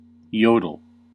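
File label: "yodel", US English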